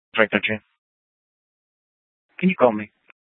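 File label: Scottsdale PD North Dispatch; Nth Dispatch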